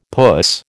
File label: "puss", American